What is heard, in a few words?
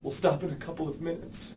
male sad